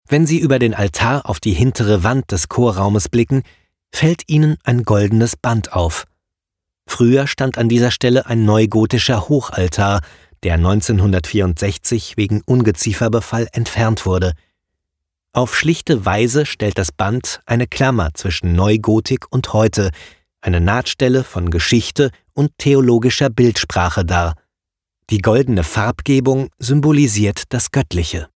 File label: audioguide